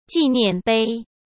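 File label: "纪念碑", Mandarin Chinese; chinese flashcards; hsk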